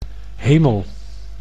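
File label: "hemel", Dutch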